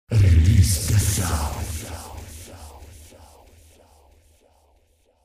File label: ID deep voice; RTS